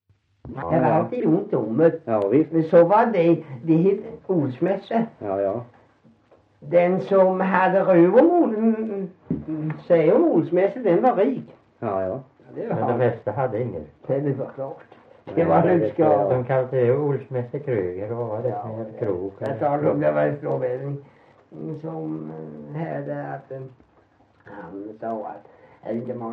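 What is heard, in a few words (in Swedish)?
Värö Halland, dialekter; Folkminnen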